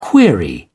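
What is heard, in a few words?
"query", British English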